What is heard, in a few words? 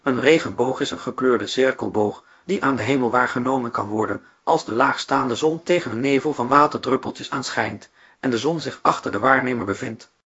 speaker, nl NL